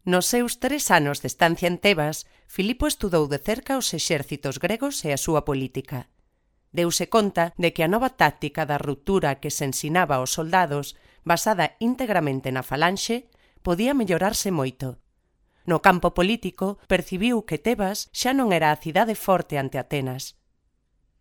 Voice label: locutora gallega galician voiceover

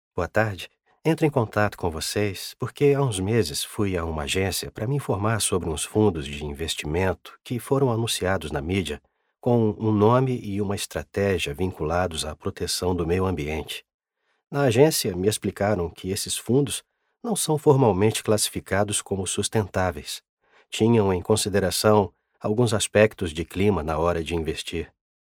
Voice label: locutor brasil brazilian voiceover

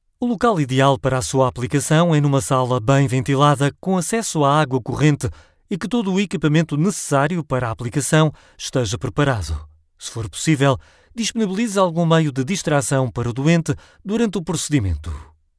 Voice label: locutor portugal portuguese voiceover

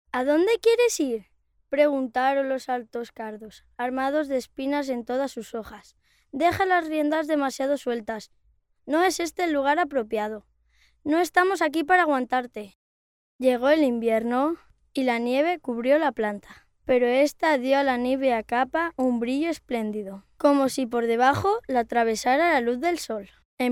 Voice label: locutor nino spanish child voiceover

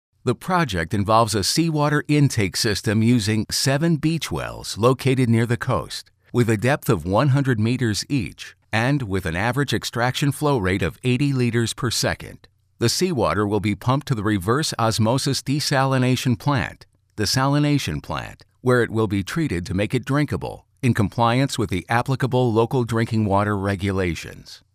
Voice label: locutor norteamericano american voiceover

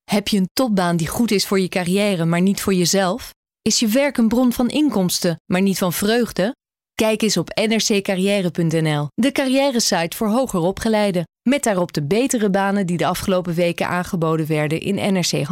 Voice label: locutora holandesa dutch voiceover female

